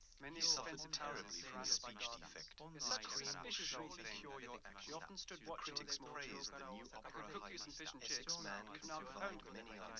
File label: male liverec